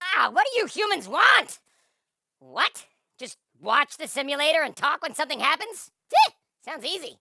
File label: voice pack introduce grunt; warzone commander